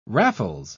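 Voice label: "raffles", British English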